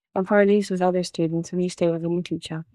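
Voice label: Voice Acting es, female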